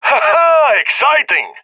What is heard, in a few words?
cheer; Counter Strike; CS:GO; leet epic